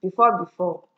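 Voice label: "before before", Nigerian Pidgin, female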